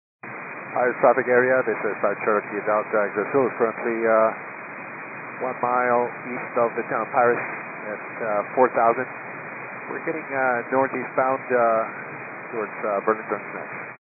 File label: 122.925 MHz; scanner radio